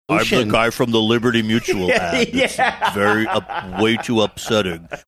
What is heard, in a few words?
saying i'm guy from ad distorted; liberty mutual